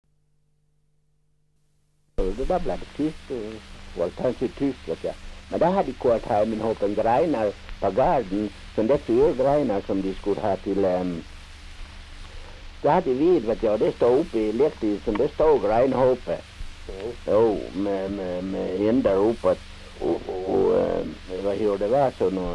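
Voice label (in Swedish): Östergarn Gotland, dialekter; Folkminnen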